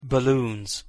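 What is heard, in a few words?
"balloons", English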